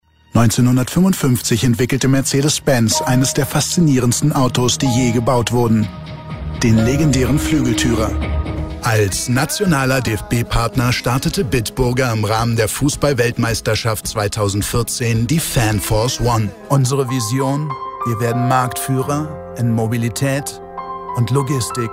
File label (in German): Off Sprecher